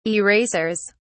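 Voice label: "erasers", British English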